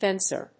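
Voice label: "fencer", English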